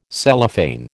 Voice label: "Cellophane", American